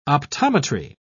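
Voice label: "optometry", British English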